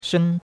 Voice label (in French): "shen1", chinois; Cours-de-Chinois